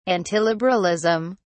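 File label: "antiliberalism", British English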